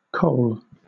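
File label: "kohl", British English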